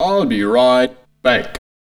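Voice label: vocal male, Dance Vocals, spoken words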